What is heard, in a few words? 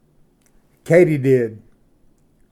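"katydid", English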